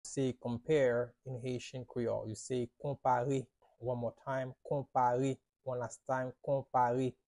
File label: "Konpare" Haitian Creole pronunciation by a native Haitian tutor; How to say Compare in Haitian Creole